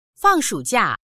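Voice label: "fang4shu3jia4", Mandarin Chinese; 放暑假; 夏休みになる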